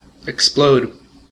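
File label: "explode", US English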